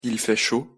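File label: "il fait chaud", French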